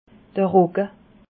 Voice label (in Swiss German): "rogge", alsace